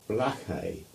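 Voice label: "Blackhay", English; place names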